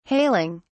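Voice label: "hailing", British English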